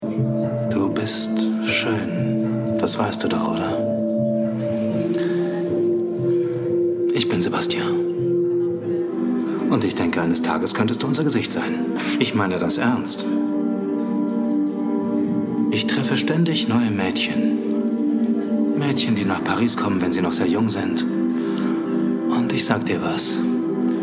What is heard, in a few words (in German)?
Lip-Sync (Synchron), German; Film; "The Model"